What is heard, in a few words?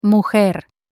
"Mujer", Spanish; las chicas del cable